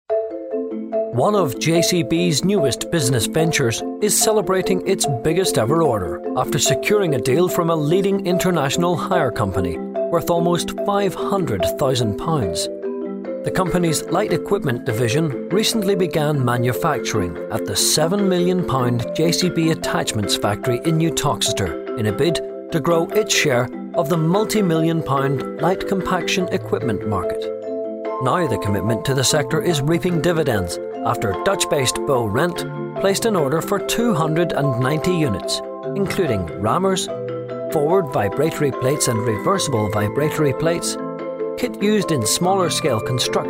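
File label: corporate, male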